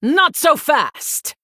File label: Female Human; Prestor; Scabbs